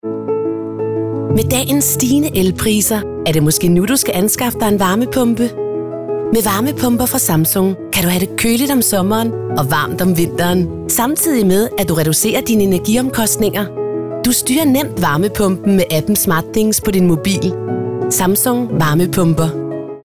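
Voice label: Danish corporate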